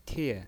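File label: "tie", pinyin voice, fourth tone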